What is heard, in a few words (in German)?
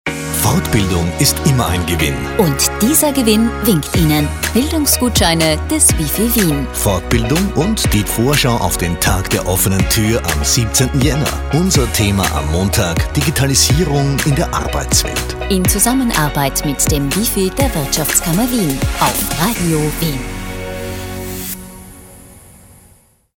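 Sprecherdemo, TV-Werbung; RW WIFI; Hörbeispiele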